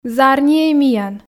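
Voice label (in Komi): "зарниӧй миян", Komi, female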